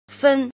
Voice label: "jin", Chinese